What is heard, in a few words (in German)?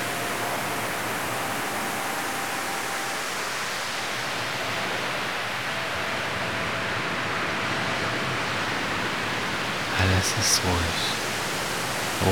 Lichtmeditation